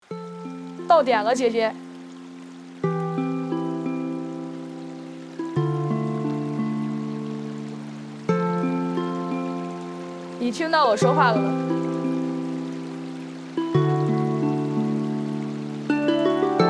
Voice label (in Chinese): 到点了姐姐 带背景音版